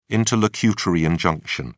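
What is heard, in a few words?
"interlocutory injunction", English, British English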